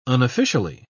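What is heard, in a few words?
"unofficially", British English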